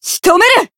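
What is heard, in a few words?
finish, Japanese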